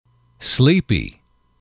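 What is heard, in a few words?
"sleepy", English, male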